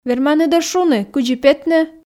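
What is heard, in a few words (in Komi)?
верманныд-ӧ шуны кыдзи петны, Komi, female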